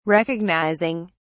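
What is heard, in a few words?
"recognizing", British English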